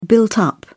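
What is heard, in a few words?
"built-up", British English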